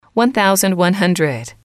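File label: "one thousand one hundred", English, female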